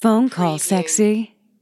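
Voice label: Female Phone Call Sexy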